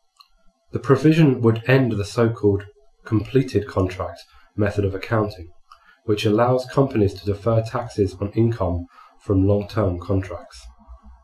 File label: reverb near 8ch